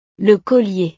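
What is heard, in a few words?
"le collier", French; ropa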